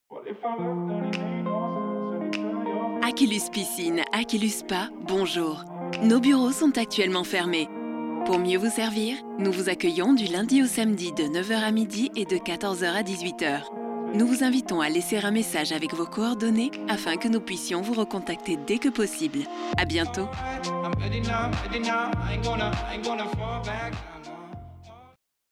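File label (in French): répondeur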